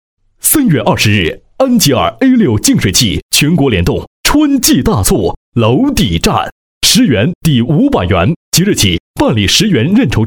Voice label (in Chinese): 男, 促销; 安吉尔春季大促